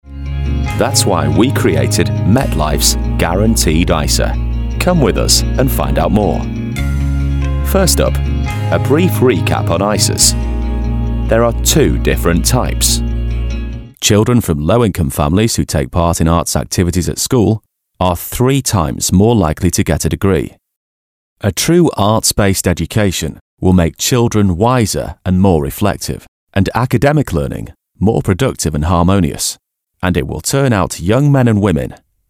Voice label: Corporate Explainer